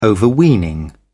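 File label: "overweening", English, British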